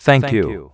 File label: thank you male, computers